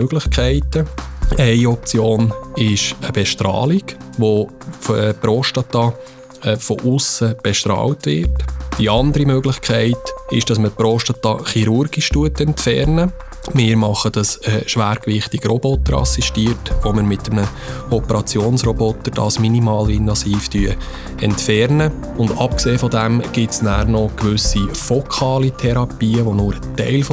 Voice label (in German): Infomercial Prostatakrebs Behandlung; Hirslanden; radio bern1